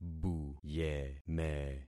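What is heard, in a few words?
"buyeme", concatenated syllables; Affixing experiment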